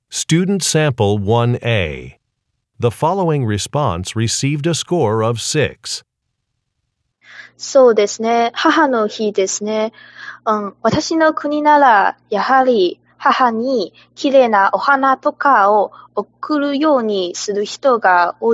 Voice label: japanese conversation; Interpersonal Speaking Samples; Conversation 1 Sample A